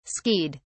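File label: "skied", British English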